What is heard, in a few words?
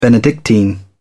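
"benedictine", English, British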